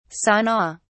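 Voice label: "sana", British English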